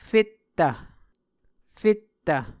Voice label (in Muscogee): "fettv", Muscogee